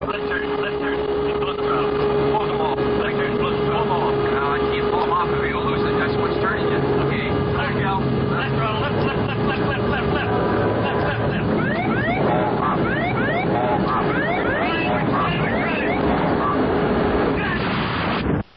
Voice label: airline blackbox recordings; ual232